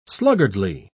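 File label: "sluggardly", English, British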